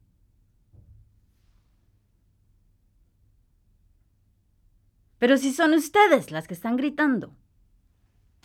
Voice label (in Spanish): PERO SI SON USTEDES LAS QUE ESTAN GRITANDO